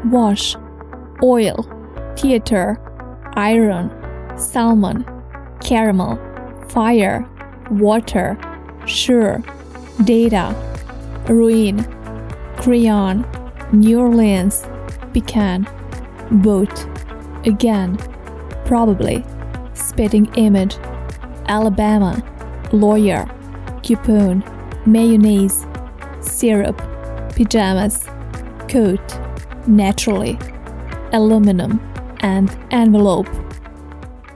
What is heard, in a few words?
Accent tag